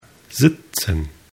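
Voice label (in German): "sitzen", German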